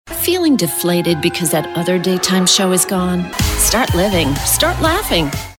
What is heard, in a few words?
Network Television Imaging upbeat